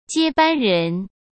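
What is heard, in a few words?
"接班人", Mandarin Chinese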